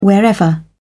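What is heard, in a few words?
"wherever", British English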